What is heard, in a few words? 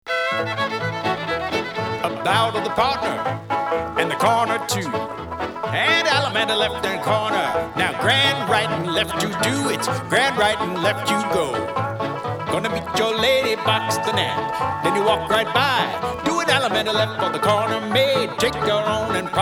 Square Dance Calls; Internet Session, Los Angeles